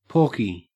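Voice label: "porky", Australian English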